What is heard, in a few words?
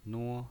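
"nuo" first tone, pinyin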